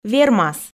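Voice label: "вермас", Komi, female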